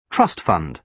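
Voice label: "trust fund", British English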